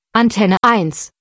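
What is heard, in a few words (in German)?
"Antenne", German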